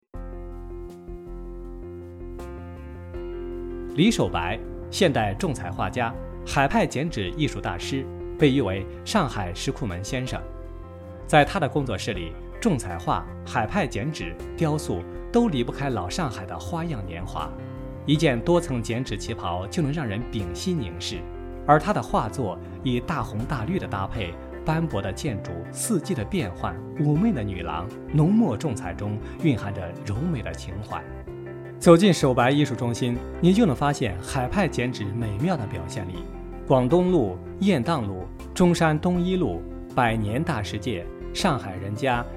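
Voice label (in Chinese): 宣传片样音艺术中心配音; 宣传片样音04守白艺术中心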